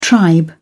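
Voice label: "tribe", British English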